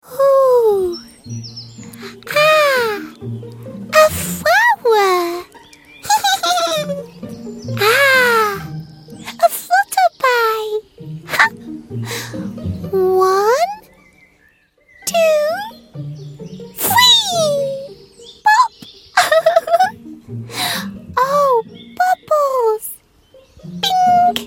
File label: character